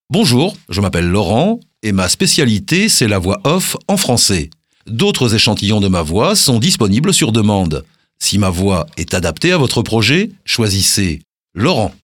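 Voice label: French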